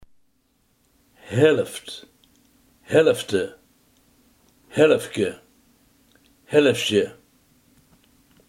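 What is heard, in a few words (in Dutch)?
helft, Limburgse gesproken woordenboeken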